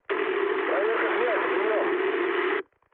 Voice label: chat, speech ru